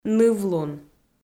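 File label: "нывлун", Komi, female; audio dict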